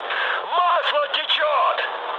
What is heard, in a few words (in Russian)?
damaged oil, mood high, speech ru; War Thunder